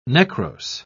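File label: "necrose", British English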